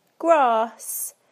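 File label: "grass", British English; PictureDictionary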